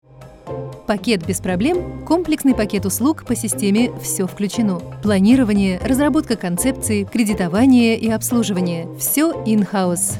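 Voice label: Russian, Kommentar, Dynamisch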